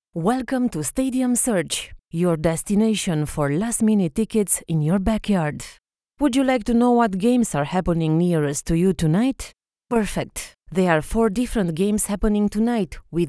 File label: English, Romanian, Female, 20s-30s; Based in Romania